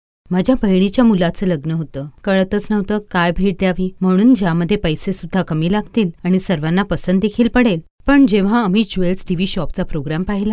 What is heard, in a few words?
MARATHI FEMALE